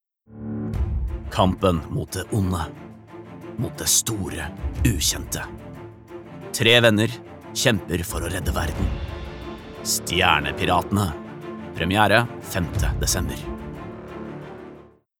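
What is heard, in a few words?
Norwegian movietrailer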